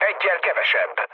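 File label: player killed enemy, mood low, Hungarian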